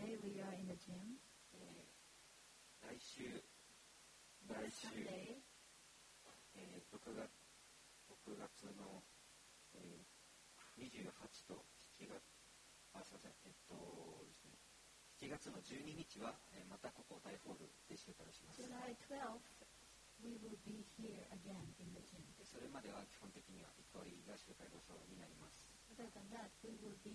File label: announcement; sunday message 2020